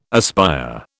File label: "aspire", British